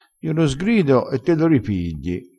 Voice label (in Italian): "ripiglia", crespinese, vocabolario dialettale